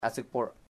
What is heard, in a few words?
mosquito small, chamacoco